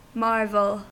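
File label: "marvel", US English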